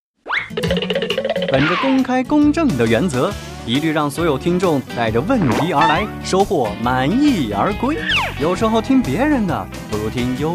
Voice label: 节目片花

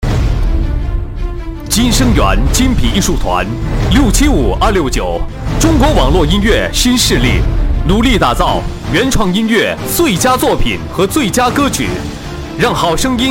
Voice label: 视频房间宣传片; 金声缘视频房间宣传片

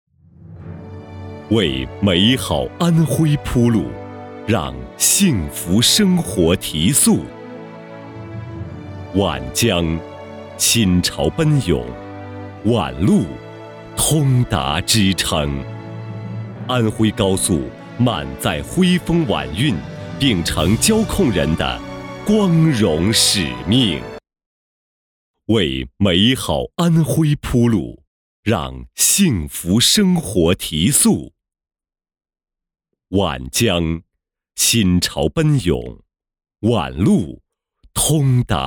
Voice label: 大气专题; 大气专题安徽交控

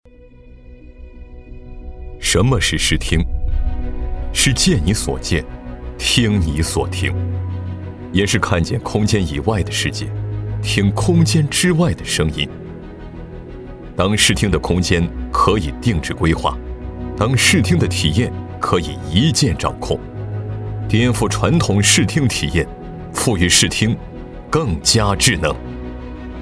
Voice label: 沉稳自信; 中创世纪

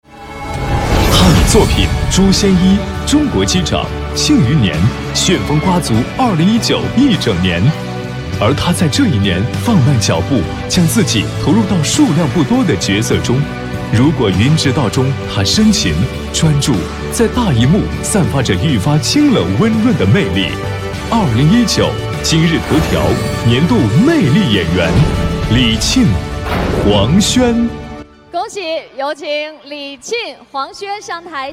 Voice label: 高端 男 大气 时尚 年度 盛典; 今日头条

